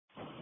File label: Police Patrol 1 (Dispatch)